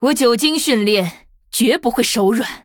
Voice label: Female Nightborne Threaten; zh